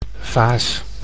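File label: "vaas", Dutch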